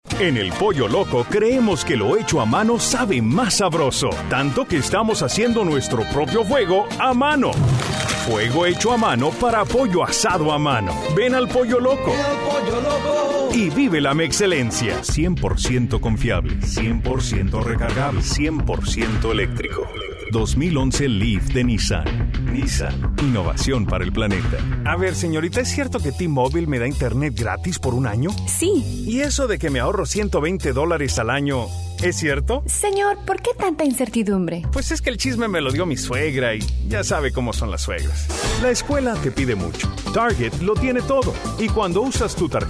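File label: Spanish Male, Professional, confident, strong baritone.; Spanish (Latin and Castillian)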